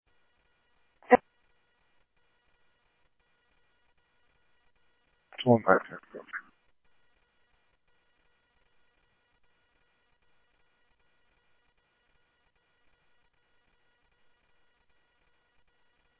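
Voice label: police radio dispatch, ScottsdalePolice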